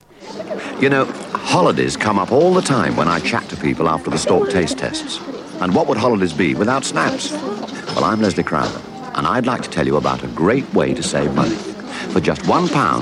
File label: Radio Hallam Advert - Margarine; Stork